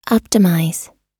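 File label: "optimize", US English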